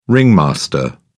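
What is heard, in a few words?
"ringmaster", British English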